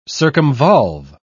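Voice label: "circumvolve", British English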